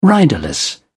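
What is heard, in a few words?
"riderless", British English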